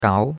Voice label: "gao2", chinois; Cours de Chinois

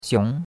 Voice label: "xiong2", chinois; Cours-de-Chinois

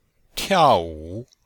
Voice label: "tiao4wu3", chinois; Cours de Chinois

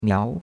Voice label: "miao2", chinois; Cours-de-Chinois

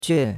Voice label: "jue4", chinois; Cours-de-Chinois

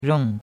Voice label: "reng4", chinois; Cours-de-Chinois

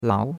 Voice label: "lao2", chinois; Cours-de-Chinois

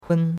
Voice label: "hun1", chinois; Cours-de-Chinois

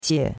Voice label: "qie4", chinois; Cours-de-Chinois

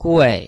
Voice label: "gui4", chinois

